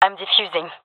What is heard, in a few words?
defusing, gendarmerie fem epic; vo; agents